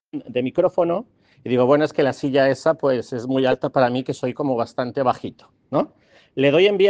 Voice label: Voice message